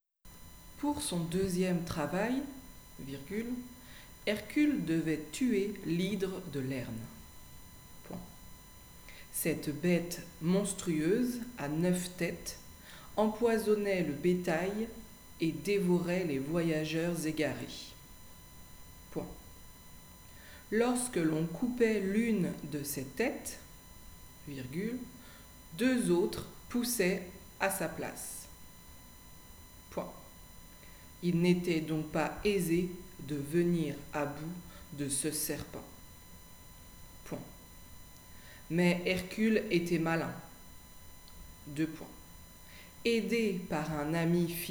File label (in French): dictee